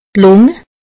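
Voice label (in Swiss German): "lung", Haut Rhin; medecine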